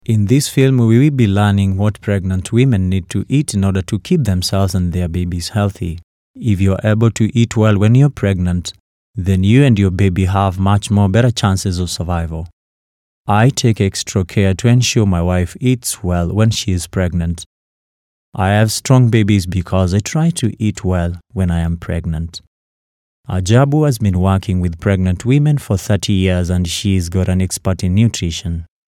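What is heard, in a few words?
English African Ugandan Male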